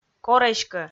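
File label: "кор эськӧ" Komi, female; audio dict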